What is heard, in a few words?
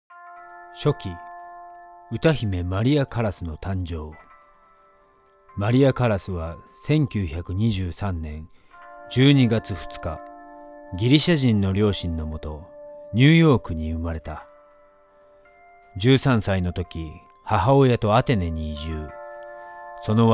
japanese documentaries; acteur/artiste vocal japonais basé à New York